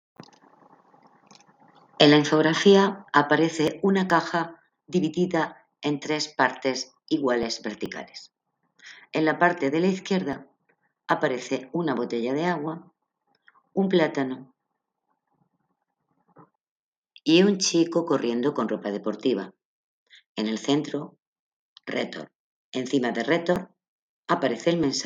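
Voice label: count to five in french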